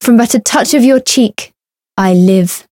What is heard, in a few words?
Voice variable chant